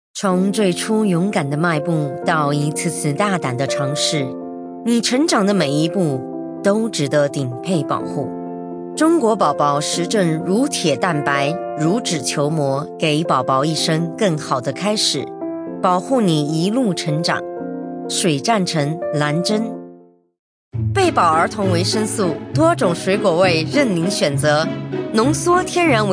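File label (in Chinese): Chinese, Commercial; GoLocalise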